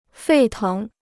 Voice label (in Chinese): "沸腾", Chinese